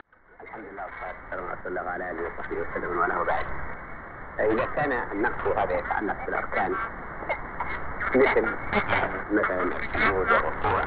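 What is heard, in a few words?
Voice of Korea English AM; 12015,0; KRE; Kuyang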